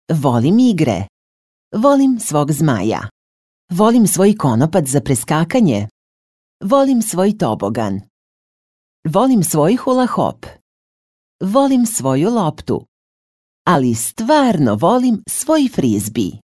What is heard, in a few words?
Serbian, F, eLearning